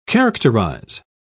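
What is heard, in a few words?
"characterise", British English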